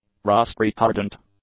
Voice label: "rust-retardant", English; adjectives